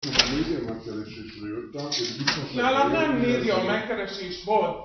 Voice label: male pitch